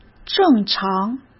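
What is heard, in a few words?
"正常", Mandarin Chinese; hsk; chinese-flashcards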